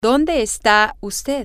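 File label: where are you mr mrs dr, spanish, female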